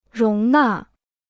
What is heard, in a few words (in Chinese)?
"容纳", Chinese